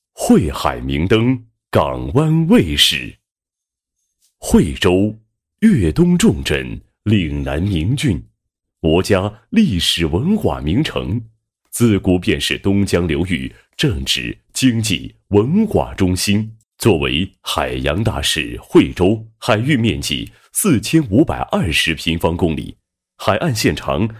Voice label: 男 专题片; 船舶交通管理中心宣传介绍

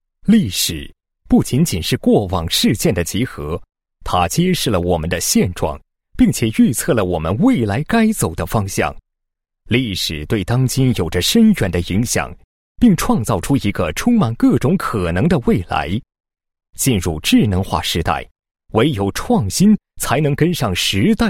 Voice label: 浑厚大气, 国语男声配音, 磁性; 磁性专题; 三星大事记

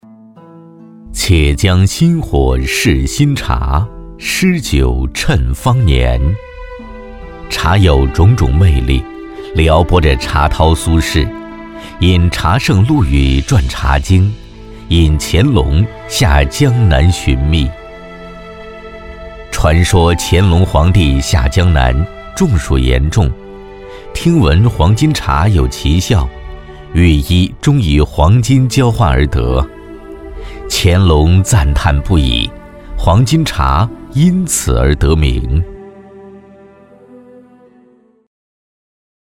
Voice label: 男, 宣传片, 讲述; 戴大敬-牌黄金茶

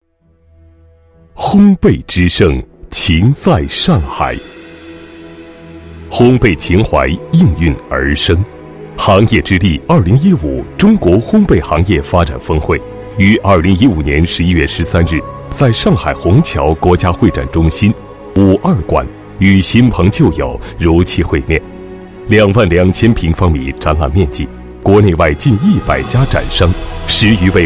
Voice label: 男 专题 烘焙行业发展峰会, 国语男声配音; 大气专题

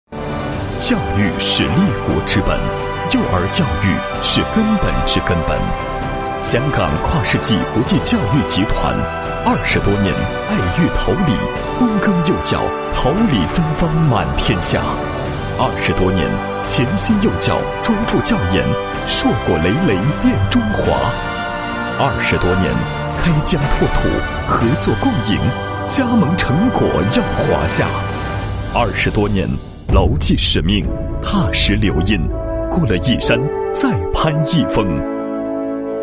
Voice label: 男 国际教育集团 宣传片配音片段; 香港跨世纪国际教育集团

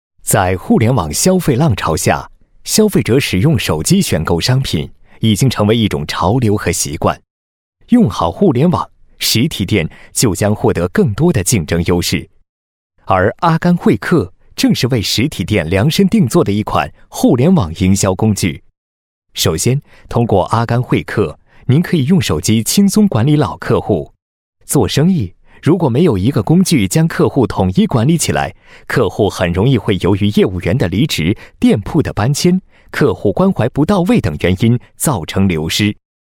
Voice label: 男 品牌宣传 宣传配音; 阿甘汇客互联网营销工具

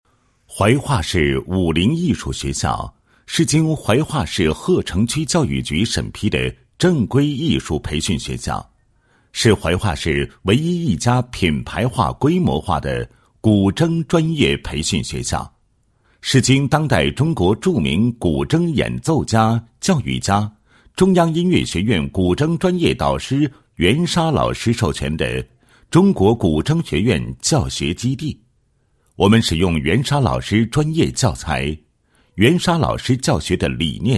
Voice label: 男 学校 某学校简介和办学特色

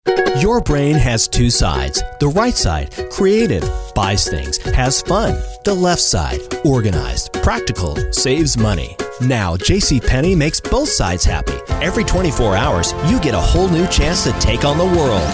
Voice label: 外籍英男 网站广告

